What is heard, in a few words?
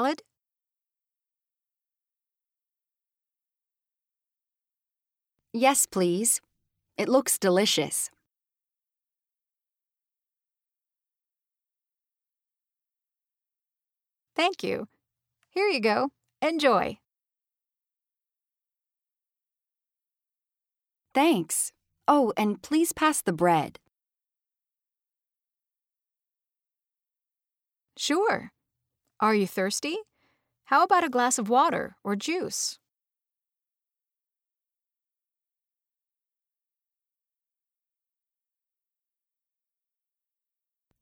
Pronunciation Practice; Connectivity Foundation